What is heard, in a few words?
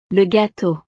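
"le gateau", French; snacks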